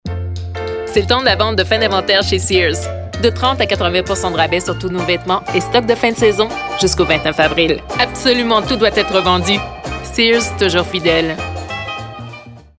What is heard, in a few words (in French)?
Pub voix enjoue dynamique; SEARS